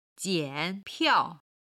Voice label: "jian3piao4", chinese

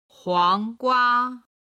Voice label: "huanggua", Chinese

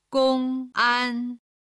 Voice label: "gongan", Chinese

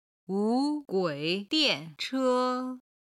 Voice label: "wu2gui3dian4che1", chinese

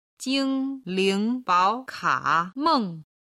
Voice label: "jinglingbaokameng", chinese

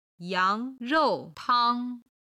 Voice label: "yang2 rou4 tang1", Chinese

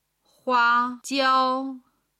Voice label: "huajiao", chinese

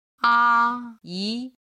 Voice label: "a yi", Chinese

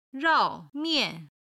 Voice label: "rao4 mian4", Chinese; chinese food

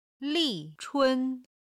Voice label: "li4chun1", Chinese

